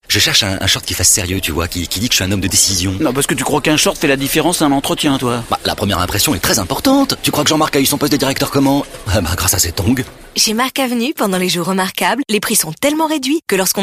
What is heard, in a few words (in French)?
Nerveux angoissé; Marques Avenue